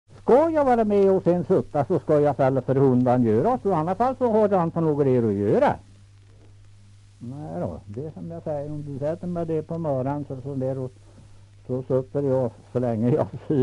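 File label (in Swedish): Kräklinge Närke, Svenska sagor, dialekter; Folkminnen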